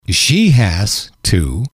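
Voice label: "she has to", English, male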